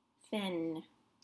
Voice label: "faine", French; beechnut

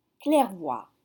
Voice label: "claire-voie", French

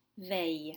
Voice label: "veille", French

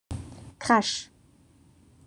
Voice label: "crache", French

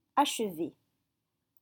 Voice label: "achever", French

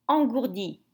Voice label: "Engourdie", French

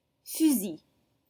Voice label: "fusil", French

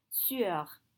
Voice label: "sueur", French

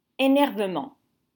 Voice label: "Énervement", French

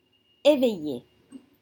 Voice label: "éveillée", French

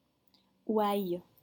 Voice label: "ouailles", French

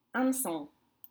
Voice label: "hamcon", French; hook

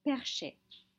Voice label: "perchée", French

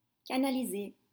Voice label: "canalisé", French; channeled

